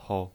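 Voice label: "hou", neutral tone, pinyin voice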